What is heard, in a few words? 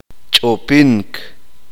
uspanteko; saltar